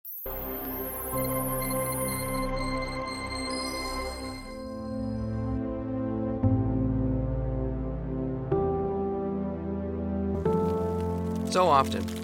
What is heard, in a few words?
script voiceover